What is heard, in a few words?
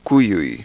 velar voiceless, quechua